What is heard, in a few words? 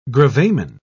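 "gravamen", British English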